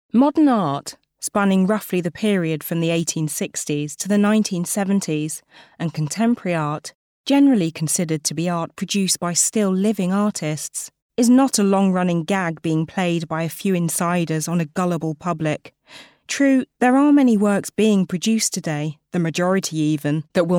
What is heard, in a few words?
Audio Books Narrators